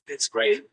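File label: Voice Acting es M, Spanish, male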